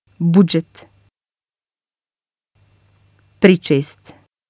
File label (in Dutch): budzet, bosnisch; uitspraak; budget